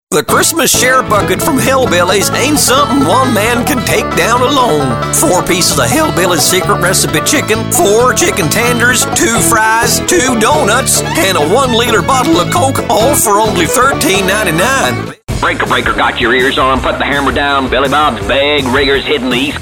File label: male irish voice over character